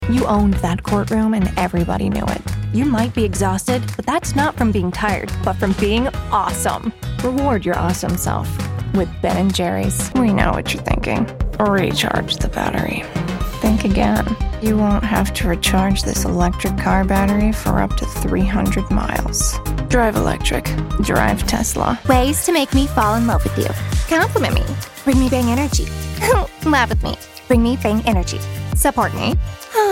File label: VO commercial; Reel